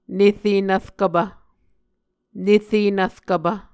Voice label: "nere nvrkvpv", Muscogee

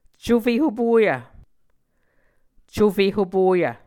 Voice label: "cufe hopoyv", Muscogee

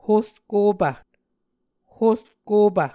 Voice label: "horkopv", Muscogee

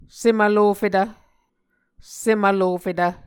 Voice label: "sem vlofetv", Muscogee

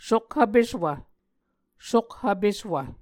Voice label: "sokhv peswv", Muscogee

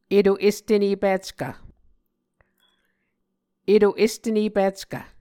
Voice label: "eto-estenepickv", muscogee

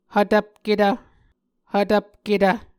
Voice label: "hvtvpketv", Muscogee

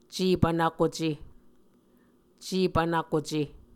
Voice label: "cepvnvkuce", muscogee; Mvskoke Words with Voicing Consonants; Martin, Jack B., and Margaret McKane Mauldin. 2023. A Dictionary of Creek/Muskogee; SIL International

